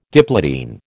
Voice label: "diplotene", American

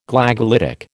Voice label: "Glagolitic", American

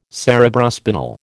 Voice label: "Cerebrospinal", American

